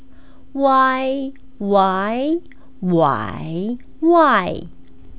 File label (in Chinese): "wai", Chinese pronunciation